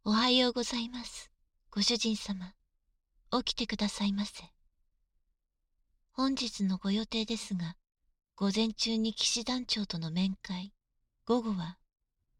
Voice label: binaural

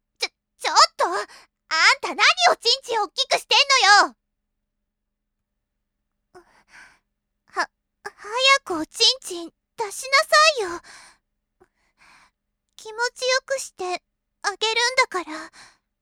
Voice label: tsundere; adult; freezing

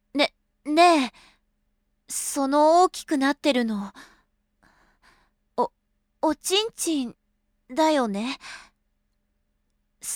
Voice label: boyish boy, adult voice; freezing

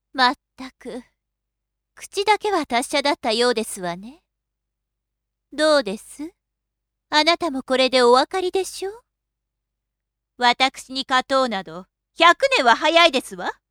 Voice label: female

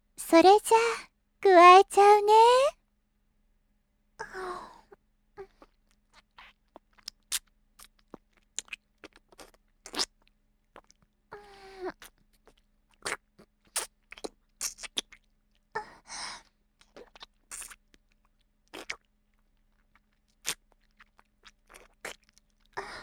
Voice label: ane amaama, milk voice, adult; freezing

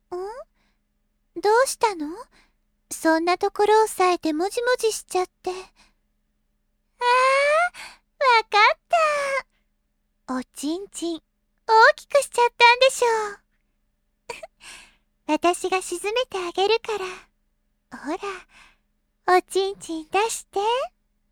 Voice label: ane amaama, milk voice, adult; freezing

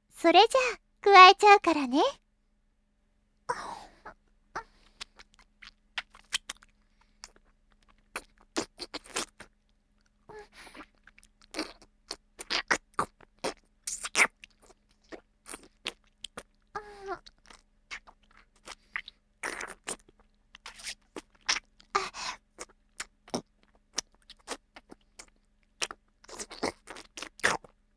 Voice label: ane, milk voice, adult; freezing

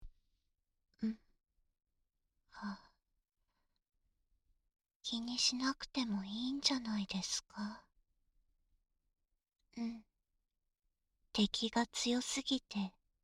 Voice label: binaural

